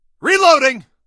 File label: reload, male; vo; npc; Source Engine; Portal 2